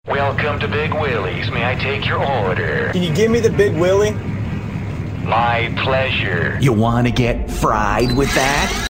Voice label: impressions